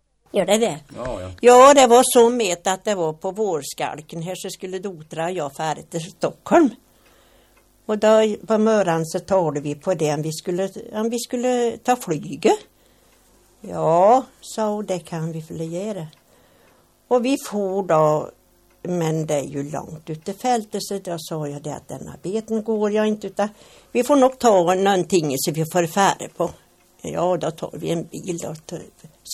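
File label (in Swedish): Värmskog Värmland, Svenska sagor, dialekter; Folkminnen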